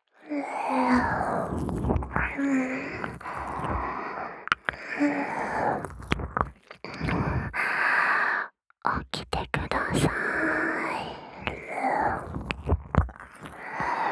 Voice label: 耳舐め目覚ましボイス, ASMR

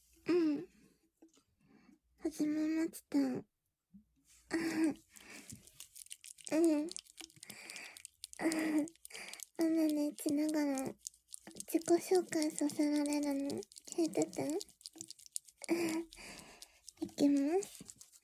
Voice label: オナニーしながら自己紹介

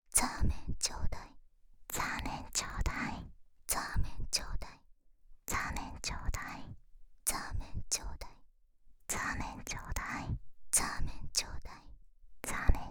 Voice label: 淫語連呼 ザーメンちょうだい

